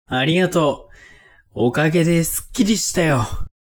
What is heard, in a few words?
man